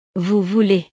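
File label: "vous voulez", French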